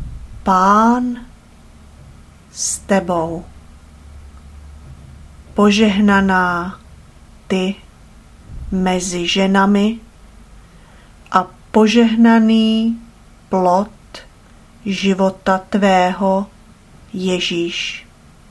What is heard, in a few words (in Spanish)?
ave maria checo lento